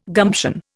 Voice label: "gumption", Indian